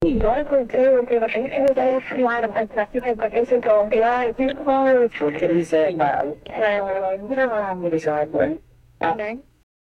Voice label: media speakerphone conv, communications